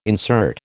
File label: "insert", American